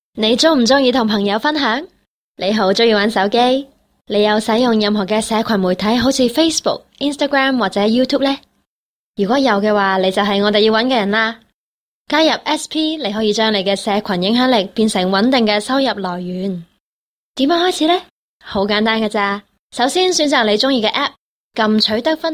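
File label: cantonese explainer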